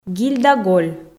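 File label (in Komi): "гиль да голь", Komi, female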